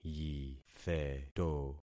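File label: "yitheto", concatenated syllables; Affixing experiment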